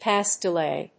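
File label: "pass delay", English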